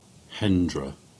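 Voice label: "Hendra", Cornish; place-names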